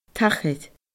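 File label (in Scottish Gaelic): "tachair", Scottish Gaelic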